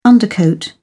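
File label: "undercoat", British English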